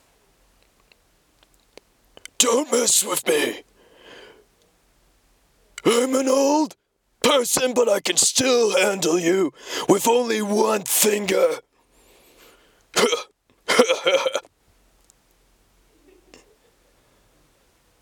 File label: Grumpy Old Man Yelling